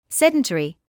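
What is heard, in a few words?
"sedentary" English, British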